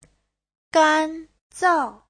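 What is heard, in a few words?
"gānzào", Chinese; Giáo trình Hán ngữ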